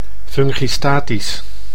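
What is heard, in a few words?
"fungistatisch", Dutch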